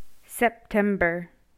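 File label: "September", English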